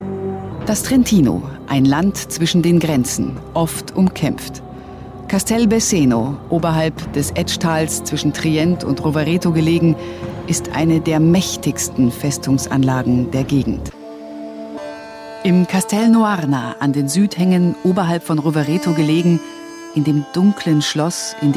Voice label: Kommentar Neutral

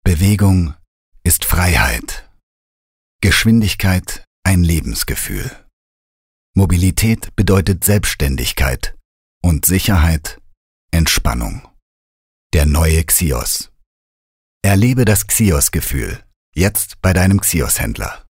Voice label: Sanft; Xios

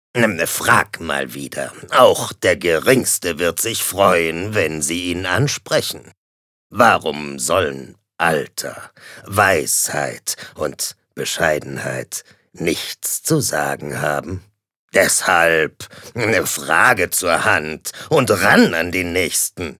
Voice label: Charge Alter Mann

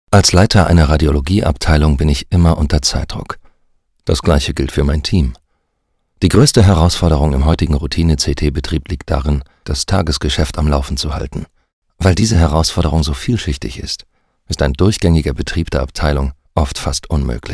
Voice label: Sanft; Fremdtake

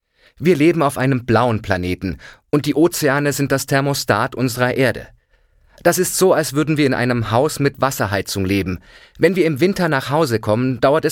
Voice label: Neutral

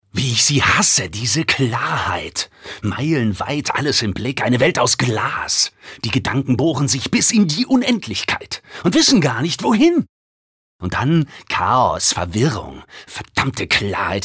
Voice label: Schauspiel Energisch

